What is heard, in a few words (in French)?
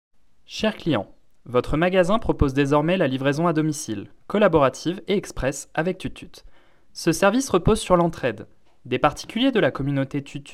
Annonce Magasin